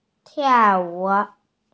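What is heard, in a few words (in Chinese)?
"tiao4wu3", Mandarin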